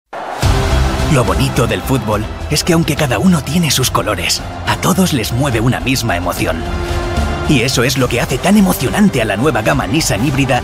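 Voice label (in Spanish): otros España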